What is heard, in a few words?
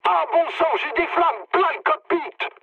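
on fire, mood medium, French; War Thunder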